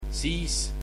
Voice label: Then, estonian